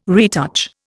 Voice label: "retouch", Indian